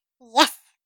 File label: "Yes", Child Sound